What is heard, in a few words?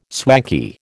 "swanky", American